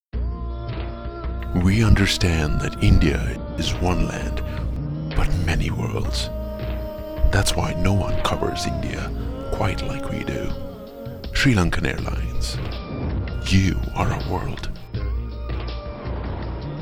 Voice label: TVC Srilankan VO